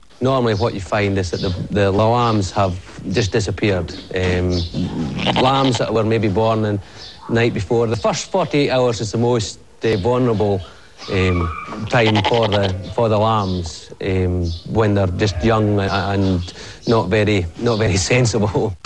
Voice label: Scottish, dialect